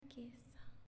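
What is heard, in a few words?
"Chiesa", Italian, female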